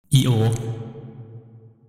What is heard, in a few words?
eo o reverb